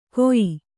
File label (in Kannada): "ಕೊಯಿ", Kannada